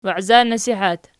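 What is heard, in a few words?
"waizanasihat" nominative, Archi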